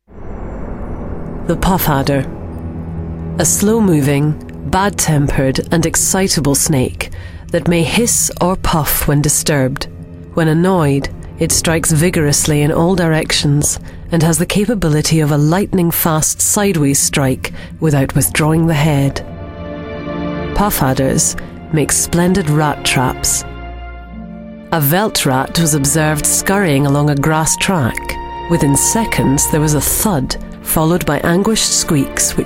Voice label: Narration